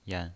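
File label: "yan" Mandarin Chinese pinyin voice, neutral tone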